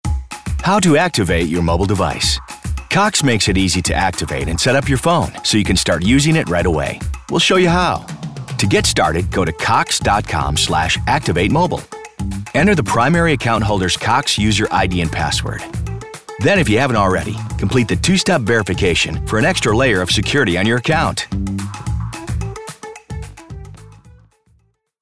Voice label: How To, friendly

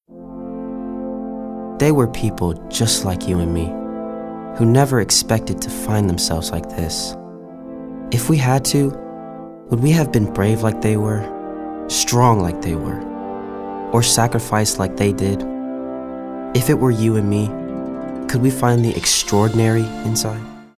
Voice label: storyteller

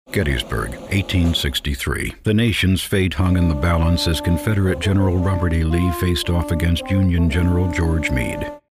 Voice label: storytellers informative

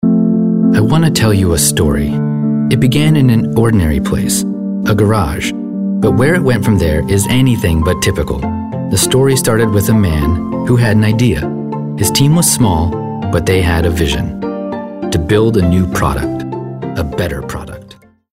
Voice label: Storyteller

